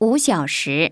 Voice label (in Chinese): chinese 5 hour; samsung YP-U5; Audio User Interface